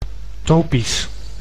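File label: "topisch", Dutch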